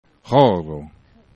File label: "hxoro", esperanto